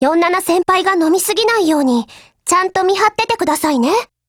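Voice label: dialogue Japanese; Saiga12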